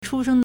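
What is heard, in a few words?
"chūshēng", Chinese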